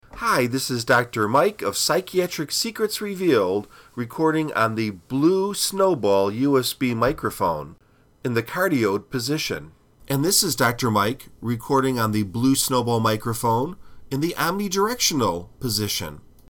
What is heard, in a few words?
blue snball